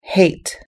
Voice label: "hate", us english